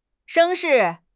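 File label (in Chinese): "声势", Chinese; HSK6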